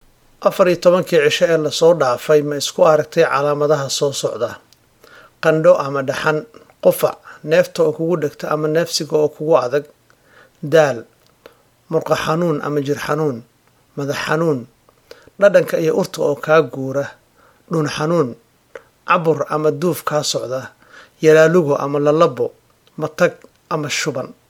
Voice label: Somali; Non-Emergency Work Orders Question 2